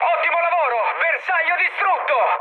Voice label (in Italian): bomb success, mood high, speech it; War Thunder